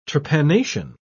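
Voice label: "trepanation", British English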